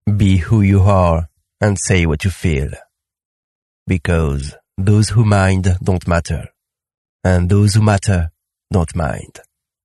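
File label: French, male, English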